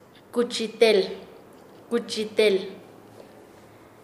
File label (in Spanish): "horqueta para puerco", Nasa Yuwe; paez